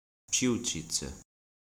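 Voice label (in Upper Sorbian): "Přiwćicy" Upper Sorbian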